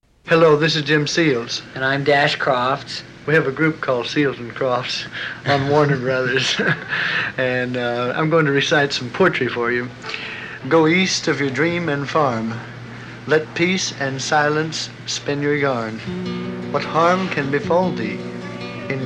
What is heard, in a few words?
Radio Commercials LP; 1972